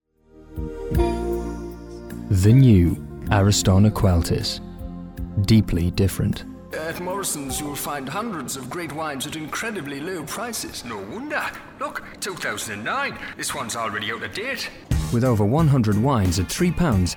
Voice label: English UK